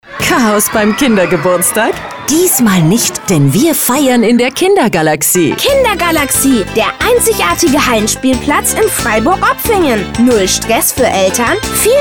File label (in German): funkspot